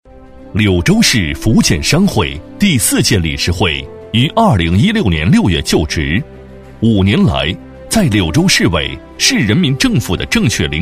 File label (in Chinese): 男 宣传片 厚重有力; 大气浑厚; 柳州商会; 男B2-厚重有力